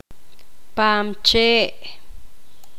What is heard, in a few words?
poqomam; corteza